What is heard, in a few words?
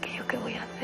Voice Acting es F